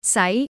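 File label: "sai2", Cantonese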